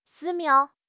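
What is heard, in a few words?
"寺庙", Mandarin Chinese; HSK6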